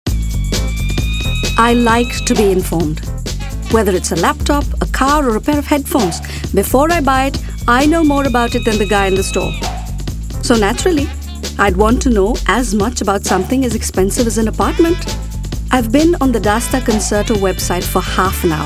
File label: Indian English TVC, Female